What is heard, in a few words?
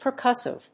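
"percussive", English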